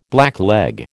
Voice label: "blackleg", American